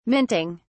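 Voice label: "minting", British English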